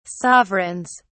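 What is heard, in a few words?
"sovereigns", English, British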